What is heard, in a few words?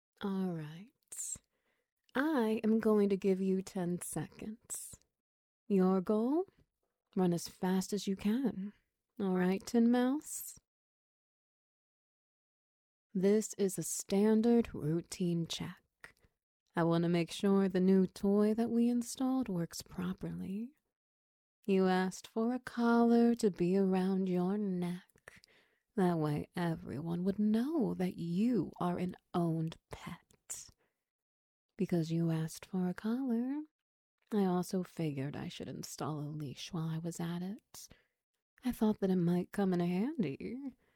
Wet Noises; Tethered